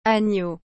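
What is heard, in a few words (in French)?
"agneau", French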